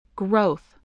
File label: "growth", spelling